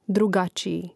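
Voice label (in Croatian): "drugačiji", Hrvatski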